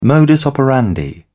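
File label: "modus operandi", British English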